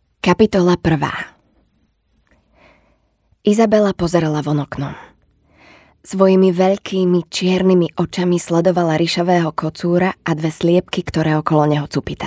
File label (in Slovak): Audiokniha